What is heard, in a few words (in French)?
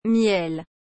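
"miel", French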